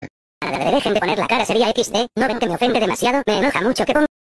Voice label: Spanish Voice Meme Sped Up

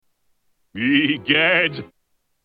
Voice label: Egad Creepy

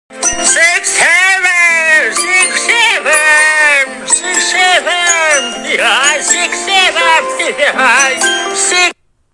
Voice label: Old Guy Yelling 67 67 67

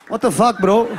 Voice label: What The Fak Bro Indian Accent

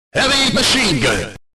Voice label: Man Saying Heavy Machine Gun

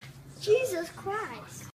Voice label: Jesus Christ Kids Voice

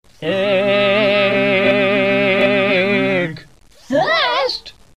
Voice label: Thiiiiiiiink Fast

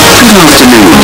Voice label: Good Afternoon Earrape

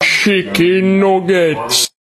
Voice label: Slowed; Chicken Nuggets

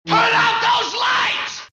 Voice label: Turn Off Those Lights Yelling

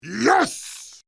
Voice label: YES Baritone

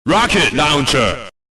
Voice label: Man Saying Rocket Launcher

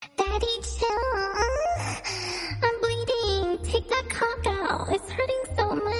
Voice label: Daddy Chill Autotune

